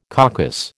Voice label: "caucus", American

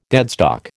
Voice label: "deadstock", American

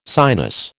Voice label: "sinus", American

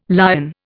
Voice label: "Lyon", Indian

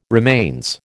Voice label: "remains", American

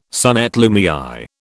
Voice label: "son et lumiÊre", British

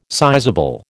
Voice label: "sizeable", American

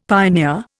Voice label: "pioneer", Indian; Dictionary

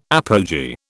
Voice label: "apogee", British

